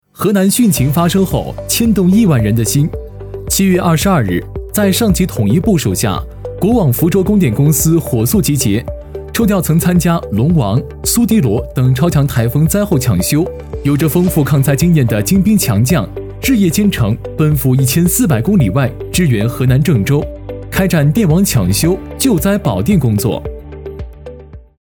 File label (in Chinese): 新闻; 真人商业配音 正播新闻亳州马拉松比赛